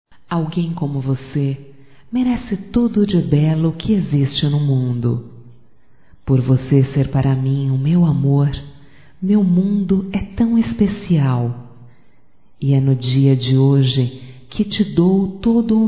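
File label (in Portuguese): romântico female, Telemensagens Aniversarios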